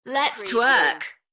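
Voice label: UK female, DJ vocals; Lets twerk; beanstalk audio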